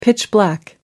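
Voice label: "pitch-black", British English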